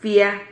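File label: "fia", Spanish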